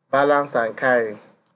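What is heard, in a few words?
"balance an carry", Nigerian Pidgin, male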